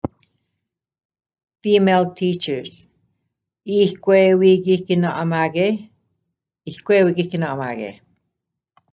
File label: Female teachers; Lakehead District School Board